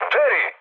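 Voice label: Serbian enemy detected mood high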